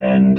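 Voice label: "end", robot voice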